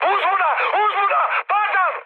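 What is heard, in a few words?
Serbian aircraft destroyed mood high; speech; War Thunder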